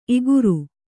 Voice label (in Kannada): "ಇಗುರು", kannada